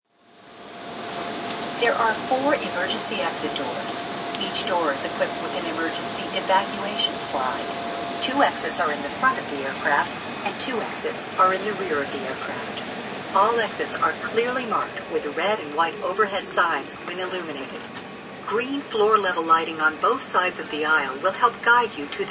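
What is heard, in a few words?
Plane Safety Instructions, Stewardess announces safety instructions before airline takeoff; Airplanes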